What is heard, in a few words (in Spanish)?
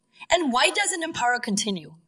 Voice Acting es